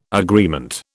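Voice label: "agreement", British English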